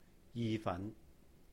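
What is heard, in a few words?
"yi-fān", Cantonese; spaghetti; 意粉; ji3fan2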